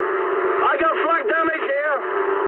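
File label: damaged anti-aircraft mood high, speech English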